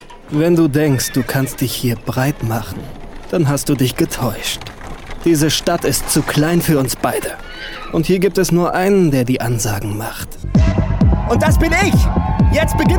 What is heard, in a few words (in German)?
Werbung; Cowboy